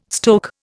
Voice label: "stoke", Indian